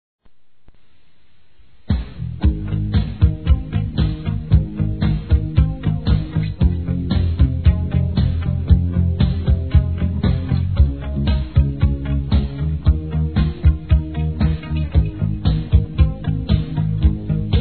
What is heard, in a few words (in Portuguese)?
Amigo para amiga m, Telemensagens; Vai Embora; Amizade